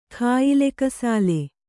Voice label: "ಕಾಯಿಲೆಕಸಾಲೆ", Kannada

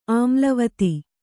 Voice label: "ಆಮ್ಲವತಿ", Kannada

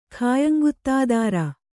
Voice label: "ಖಾಯಂಗುತ್ತಾದಾರ", kannada

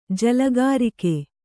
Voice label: "ಜಲಗಾರಿಕೆ", Kannada

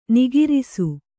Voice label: "ನಕಾರಿಸು", Kannada

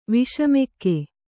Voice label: "ವಿಷಮಗೋ", Kannada; viṣama gō; noun; = ವಿಷಮನಯನ.

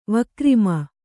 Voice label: "ವಿಕ್ರಮ", Kannada

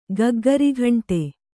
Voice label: "ಗಗ್ಘರಗಂಟೆ", kannada

